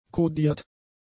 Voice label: "codiert", German; adjectives

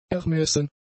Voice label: "ermessen", German; adjectives

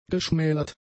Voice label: "geschmälert", German; adjectives

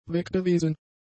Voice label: "weggewesen", German; adjectives

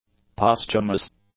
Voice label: "posthumous", english; adjectives

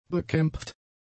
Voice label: "bekämpft", German; adjectives

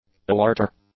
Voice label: "alerter", English; nouns

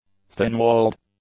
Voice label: "thin-walled", English; adjectives

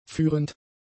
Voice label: "führend", German; adjectives

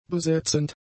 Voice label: "besetzend", german; adjectives

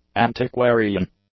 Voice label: "antiquarian", English; adjectives

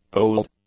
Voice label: "old", English; adjectives

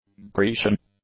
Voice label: "Grecian", english; adjectives

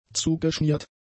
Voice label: "zugeschmiert", German; adjectives

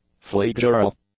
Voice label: "flexural", english; adjectives

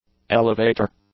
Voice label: "elevator", English; nouns

